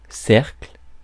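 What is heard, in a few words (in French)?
"cercle", French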